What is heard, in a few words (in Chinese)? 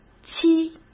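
"七", Mandarin Chinese; chinese-flashcards; hsk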